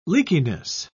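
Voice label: "leakiness", English, British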